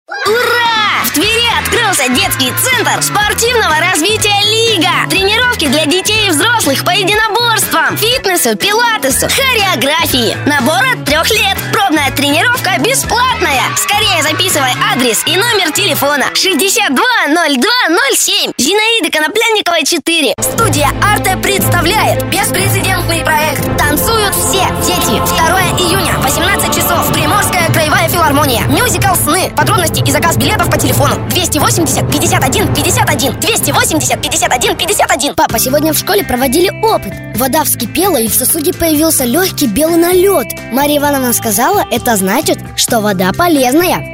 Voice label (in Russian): malchik 11 let, golosa treki